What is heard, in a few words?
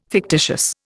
"fictitious", Indian